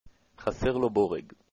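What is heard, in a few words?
crazy, Hebrew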